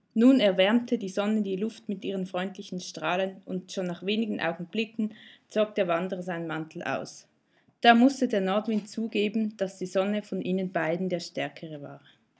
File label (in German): Nordwind Standard